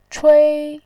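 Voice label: "chui", Mandarin Chinese, first tone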